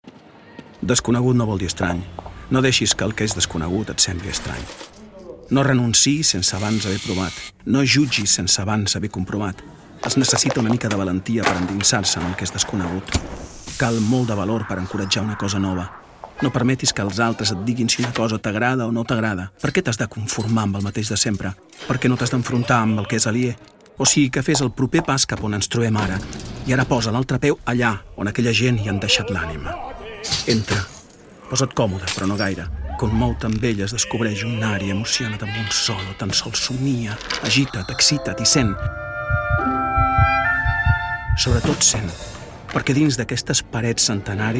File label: male catalan opera comercial; ideasonora